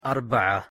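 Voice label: "2arba3a", Arabic; taa marbuuTa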